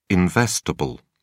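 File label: "investable", British English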